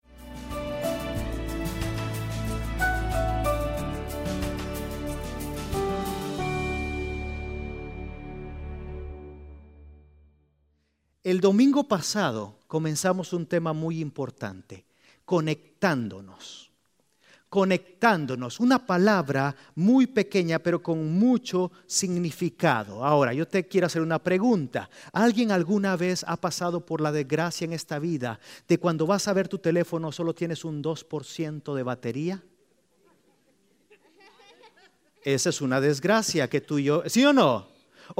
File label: Spanish Sermon